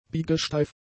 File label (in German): "biegesteif", German; adjectives